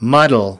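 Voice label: "muddle", US English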